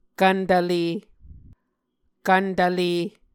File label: "kvntvle", Muscogee